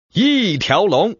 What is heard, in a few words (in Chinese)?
yitiaolong, man